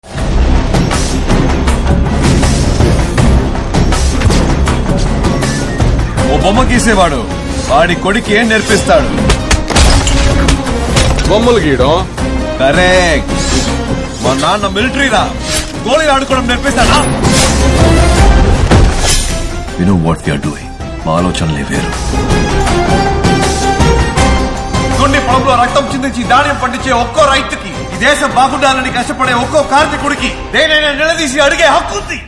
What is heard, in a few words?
Telugu Trailer Dialogue Ringtone; Suriya